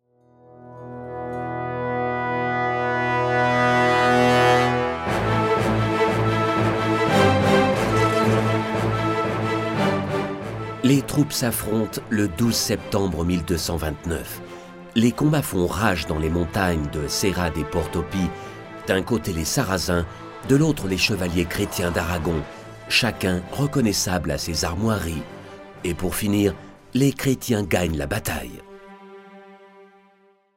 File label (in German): doku